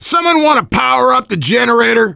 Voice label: finale setup, voice, biker; Left 4 Dead 2; left4dead2 dlc2; survivor